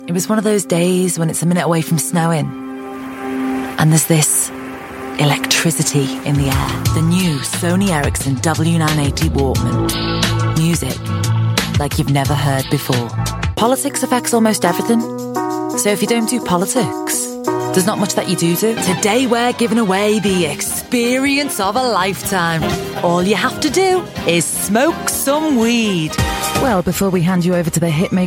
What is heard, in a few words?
liverpool voiceover montage